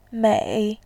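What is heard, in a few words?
"mei", Mandarin, tone 3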